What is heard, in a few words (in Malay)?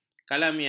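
"kalamina", Malay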